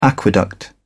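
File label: "aqueduct", British English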